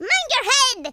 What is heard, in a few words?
"incoming", Irish; speech